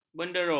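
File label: "benderung", Malay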